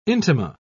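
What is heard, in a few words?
"intima", British English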